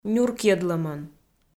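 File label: "нюркйӧдлӧмӧн", Komi, female; kpv audio dict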